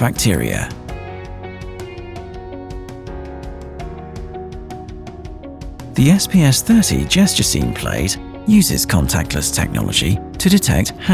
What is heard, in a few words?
Lighting Control corporate video, young UK male voice over; Simmtronic SPS.30 Gesture Scene Plate